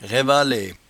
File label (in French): "raivalaie", patois jura